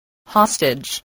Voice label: "hostage", voice spelling